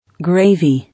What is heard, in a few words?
"Gravy", English